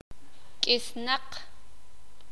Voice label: "escaso", sakapulteko

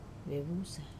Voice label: "vusa" white, Wamesa

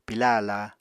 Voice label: "pilá aláa", Apatani

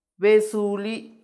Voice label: "besuli", grape, macuiltianguis

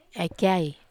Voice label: "ai kiai", Wamesa

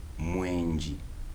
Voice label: "seven", Kibembe

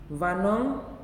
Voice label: "Finish", Nalik

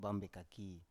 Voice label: "bam bekaki", Biak

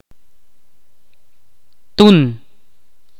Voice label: "piedra" in Ch'orti'

